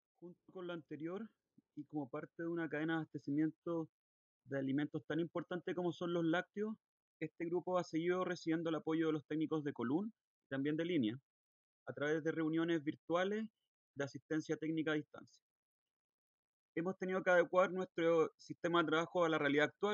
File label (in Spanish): Cuña